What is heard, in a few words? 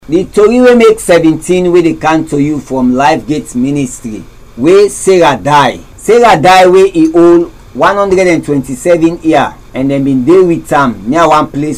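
Krio, Sierra Leone; Africa; Stories; DEATH OF SARAH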